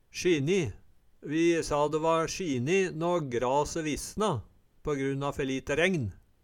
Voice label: "sjini", Numedalsmål; Eksempel på bruk Vi sa de va sjini nå grase visna på grunn a fer lite rengn

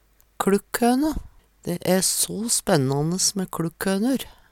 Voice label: "kLukkhøne", Numedalsmål; Dæ æ so spennanes mæ kLukkhønur.; Veggli

